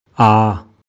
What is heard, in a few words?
"A" Garhwali